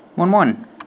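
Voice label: "moin", German; login sounds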